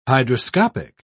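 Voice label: "hydroscopic", British English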